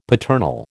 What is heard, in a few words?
"paternal", American